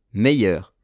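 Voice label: "meilleure", French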